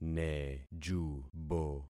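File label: "nejubo", concatenated syllables; Affixing experiment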